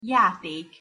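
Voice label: "jatek", Hungarian pronunciation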